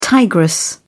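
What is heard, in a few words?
"tigress", British English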